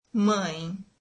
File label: brazilian mother